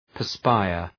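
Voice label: "perspire", English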